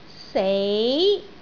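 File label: "sei2", Cantonese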